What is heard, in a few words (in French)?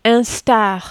"instar", French